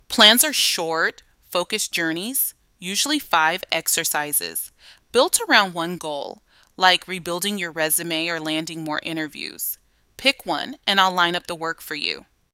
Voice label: tour narration